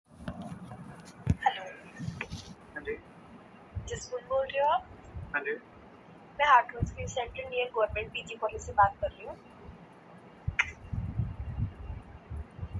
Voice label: call recording